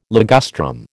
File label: "ligustrum", American